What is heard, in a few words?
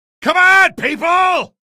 get aboard, male; video games; npc; source engine; episodic; episode 1